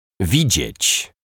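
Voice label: "widziec", Polish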